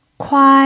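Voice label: "kuai", first tone, Mandarin Chinese pinyin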